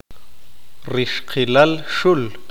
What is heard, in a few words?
"hembra", Q'eqchi'